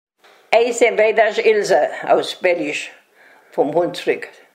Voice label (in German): vorstellung, Hunsrück; Würrich; stellt sich vor